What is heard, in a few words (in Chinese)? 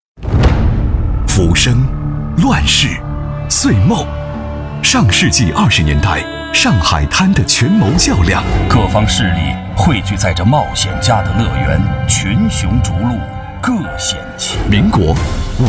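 男 专题（大气震撼）; 今晚看什么; 远大前程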